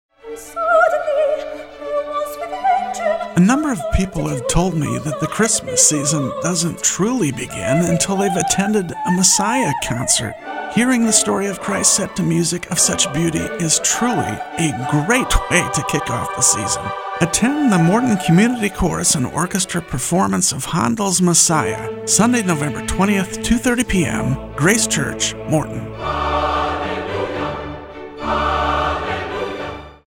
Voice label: radio spot; wbnh 2022